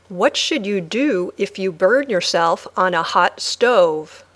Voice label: Dictation